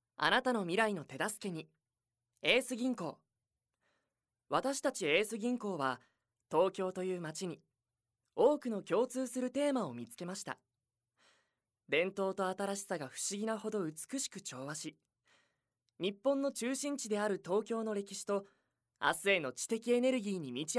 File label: narration